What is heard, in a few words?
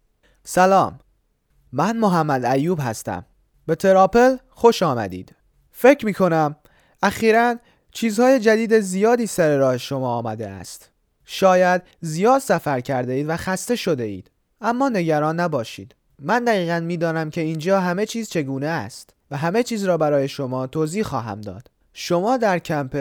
Farsi; COA Refuge